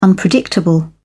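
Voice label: "unpredictable", British English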